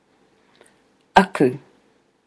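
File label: "aku", Maori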